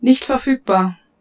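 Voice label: German, unavailable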